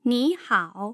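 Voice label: "nihao", Mandarin Chinese, tone pairs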